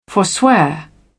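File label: "forswear", English, British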